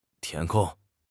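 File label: "tian2 kong4", Mandarin Chinese; HSK4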